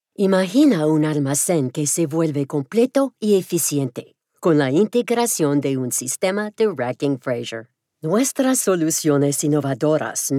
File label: Spanish, voiceover